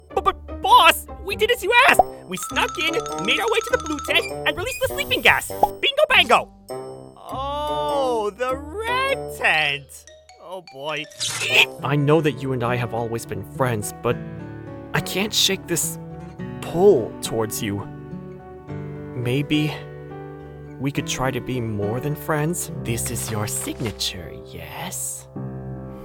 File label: Character